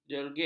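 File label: "jergik", Malay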